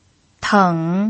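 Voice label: "teng", Mandarin Chinese pinyin, third tone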